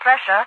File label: "pressure", radiovoice; Garry's Mod; hl2; overwatch